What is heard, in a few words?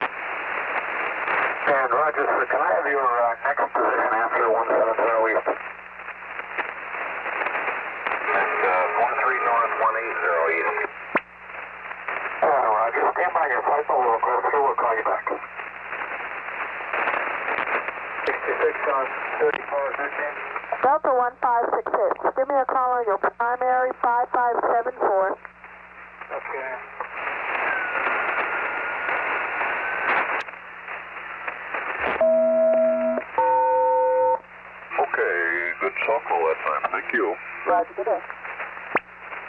Police Radio Voices